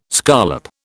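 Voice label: "scollop", British English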